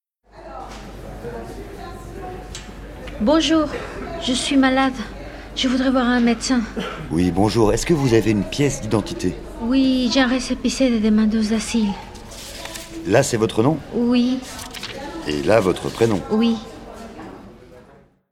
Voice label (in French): Dialogues a l accueil de l hopital; RFIAsile